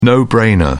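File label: "no-brainer", British English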